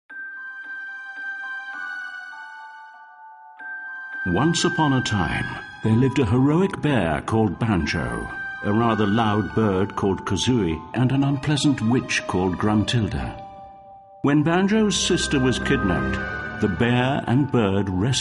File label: Opening Narration; Banjo Kazooie Nuts and Bolts